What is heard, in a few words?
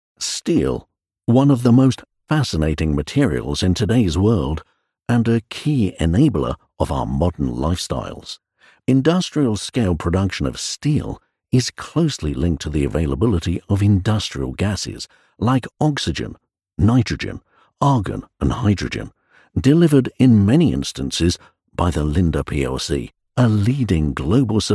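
Documentary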